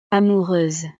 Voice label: "amoureuse", francés; estados animo